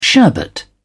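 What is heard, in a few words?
"sherbet", British English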